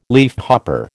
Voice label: "leafhopper", American

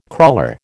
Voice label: "crawler", American

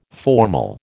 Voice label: "formal", American

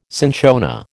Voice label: "cinchona", American

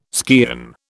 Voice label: "skean", British

